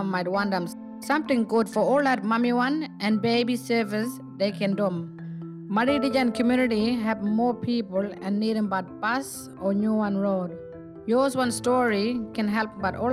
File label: Census is coming Indigenous radio Western Kriol